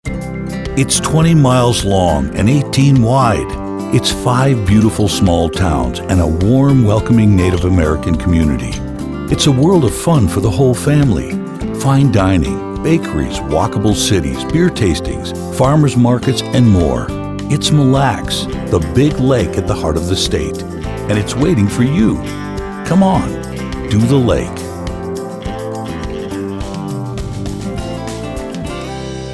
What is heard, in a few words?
Radio; MilleLacsTourism